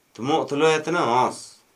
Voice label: Gta Birds coot, Dialect: Hill; Fulica atra, coot; Tikarpoda